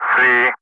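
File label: Speech, English, Digits, 3 continue; Ka-50; Wingman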